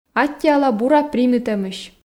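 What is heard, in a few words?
"аттьӧала бура примитӧмысь", Komi, female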